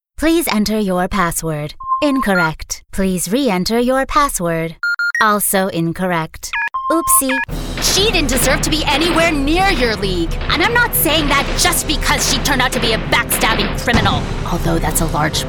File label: Animation Demo